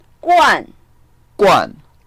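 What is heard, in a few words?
"guan4", Mandarin Chinese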